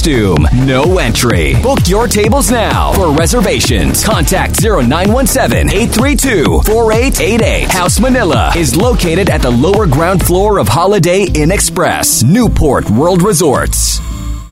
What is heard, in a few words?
HALLOWEEN PARTY Radio Commercial; HOUSE MANILA HALLOWEEN